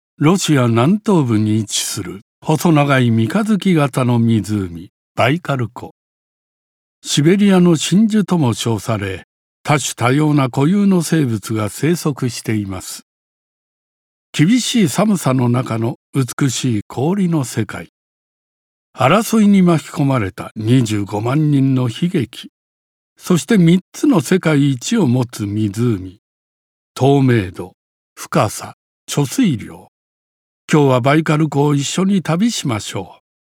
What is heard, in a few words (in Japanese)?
紀行ナレ